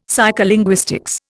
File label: "psycholinguistics", Indian; Dictionary